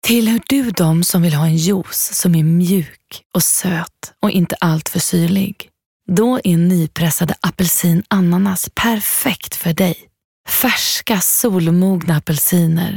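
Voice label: Swedish, female, reklam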